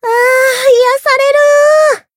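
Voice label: healed, Japanese; harle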